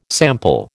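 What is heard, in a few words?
"sample", American English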